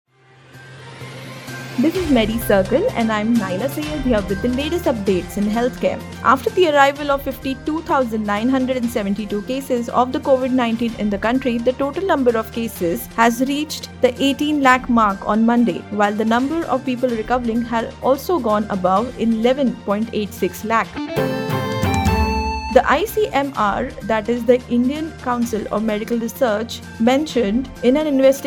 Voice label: english news